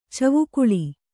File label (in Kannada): "ಚಿವುಕಳಿ", Kannada